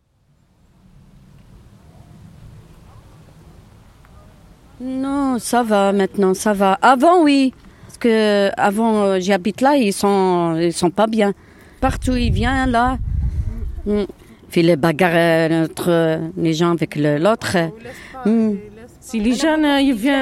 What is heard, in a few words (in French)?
femmes papotent, ambiance; LesGensDeVoiron; Brunetiere